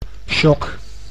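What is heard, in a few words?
"shock", Dutch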